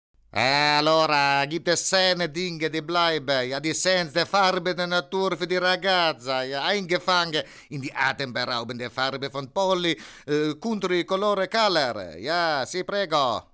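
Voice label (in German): akzent italienisch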